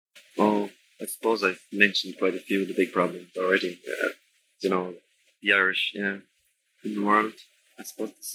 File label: Dublin Male 30s; Ireland